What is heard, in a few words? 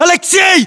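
"aleksei", battlechatter, Russian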